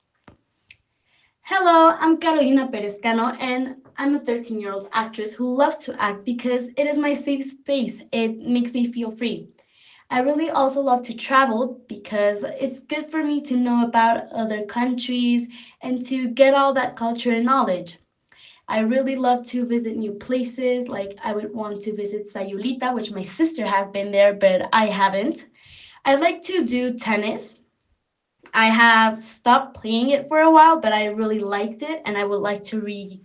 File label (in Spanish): Ingles